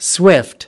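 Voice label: "swift", US English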